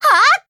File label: Voice JP; Littara